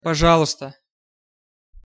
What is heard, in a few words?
"пожалуйста", ru